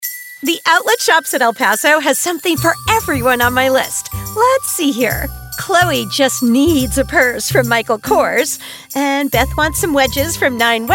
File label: Retail Radio AD